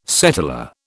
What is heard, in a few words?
"settlor", British